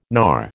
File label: "knar", American